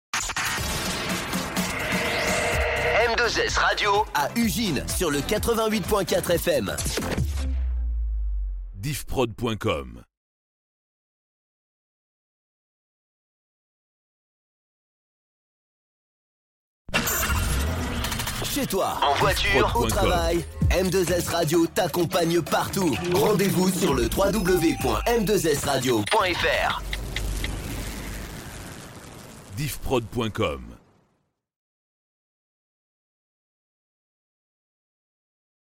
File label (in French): Jingles parles x10 avec logos